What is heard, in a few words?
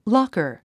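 "locker", English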